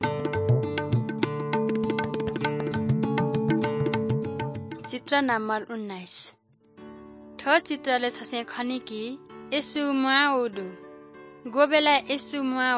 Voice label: good news newari balami